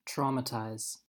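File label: "traumatize", US English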